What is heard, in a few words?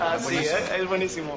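Voice Acting es Male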